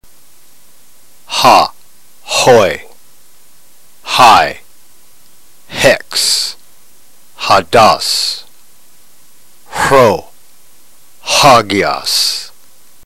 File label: drill, ntgreek